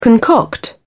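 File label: "concoct", British English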